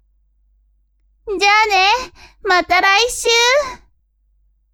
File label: woman